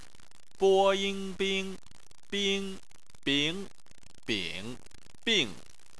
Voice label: "bing", Chinese